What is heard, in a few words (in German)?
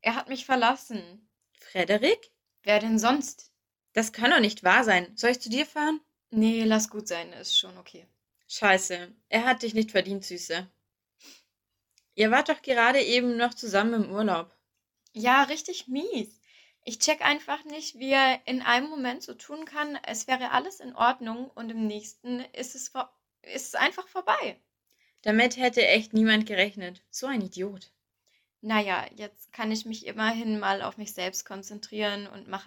Dialog Helena und Claudia am Telefon